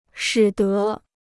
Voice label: "使得", Chinese

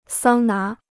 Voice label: "桑拿", Chinese

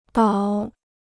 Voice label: "岛", Chinese

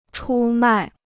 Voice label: "出卖", Chinese

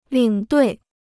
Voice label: "领队", Chinese

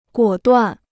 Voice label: "果断", Chinese

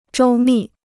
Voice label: "周密", Chinese

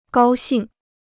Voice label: "高兴", Chinese

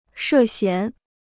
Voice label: "涉嫌", Chinese

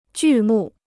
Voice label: "剧目", Chinese

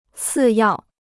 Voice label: "次要", Chinese

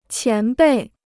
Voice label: "前辈", Chinese

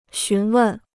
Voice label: "询问", Chinese

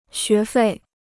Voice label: "学费", Chinese

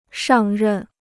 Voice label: "上任", Chinese

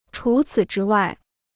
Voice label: "除此之外", Chinese

